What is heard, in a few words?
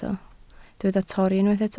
"torri", Welsh